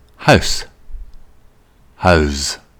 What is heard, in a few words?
"house", pronunciation; intermediate english pronunciation test